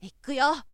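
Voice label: "ikuyo", boy; shonen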